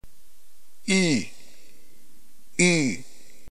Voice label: upsilon, ntgreek phoneme